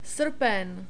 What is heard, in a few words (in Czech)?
"srpen", Czech